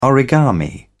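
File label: "origami", British English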